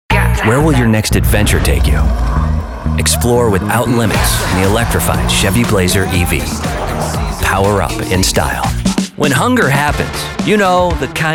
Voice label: Commercials